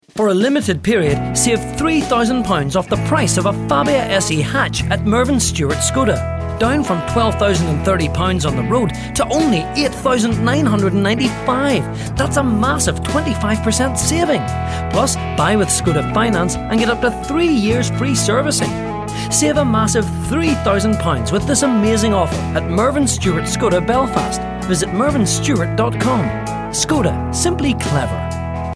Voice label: northern irish male commercial